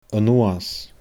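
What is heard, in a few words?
"a-nuas", Scottish Gaelic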